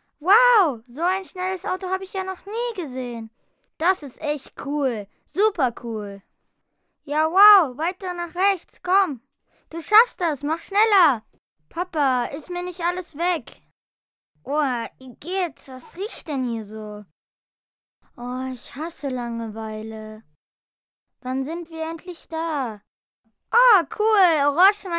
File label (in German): emotion